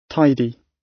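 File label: "tidy" British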